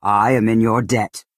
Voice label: Orc female thank you